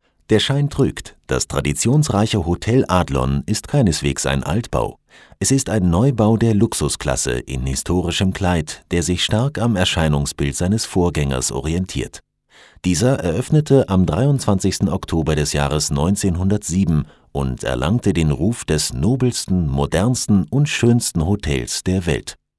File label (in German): de feature, deutsch maenner; sprecher